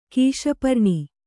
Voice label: "ಕೀಶಪರ್ಣಿ", kannada